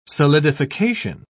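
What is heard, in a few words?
"solidification", English, British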